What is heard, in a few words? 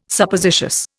"Suppositious", Indian